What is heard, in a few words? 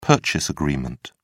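"purchase agreement", British English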